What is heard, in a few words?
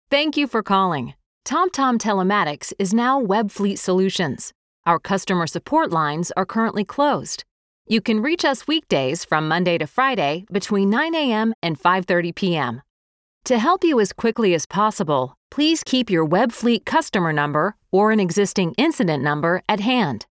Telephony; Webfleet TomTom; webfleet solutions